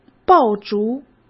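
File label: "爆竹", Mandarin Chinese; chinese flashcards; hsk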